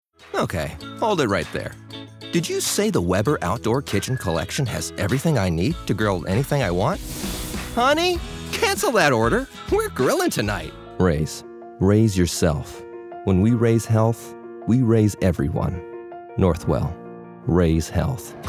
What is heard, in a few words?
Commercial